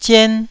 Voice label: "zien1", Taiwanese